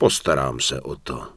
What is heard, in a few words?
Human Male Yes; wowpreklad; czwow; HumanVocalMale